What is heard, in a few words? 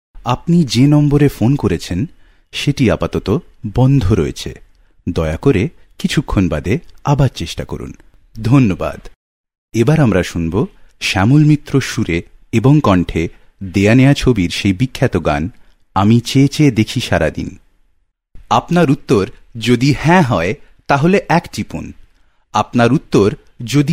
Bengali Male